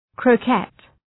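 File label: "croquette", English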